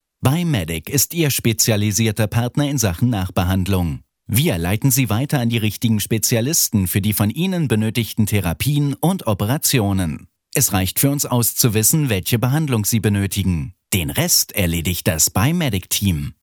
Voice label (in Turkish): Almanca